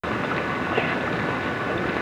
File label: "Yah", evp; French Lick; 7th floor